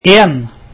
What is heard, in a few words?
"one", Norwegian